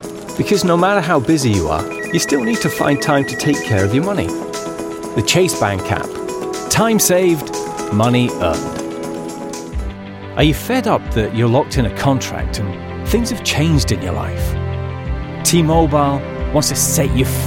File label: British Voice Actor Commercial